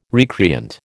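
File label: "recreant", American English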